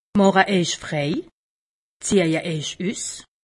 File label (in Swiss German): "frei", alsace; medecine